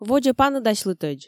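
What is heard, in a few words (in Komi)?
"водзӧ паныдасьлытӧдз", Komi, female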